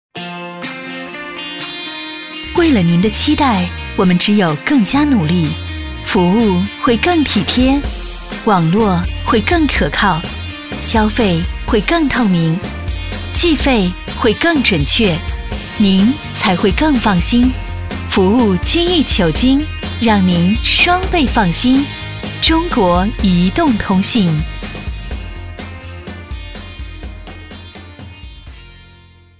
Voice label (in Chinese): 广告 样音; 中国移动